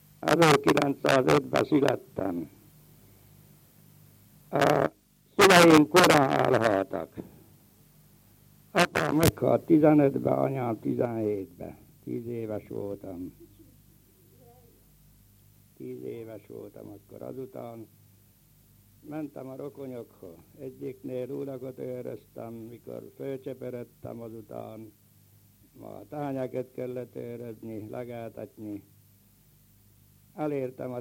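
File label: dialect collections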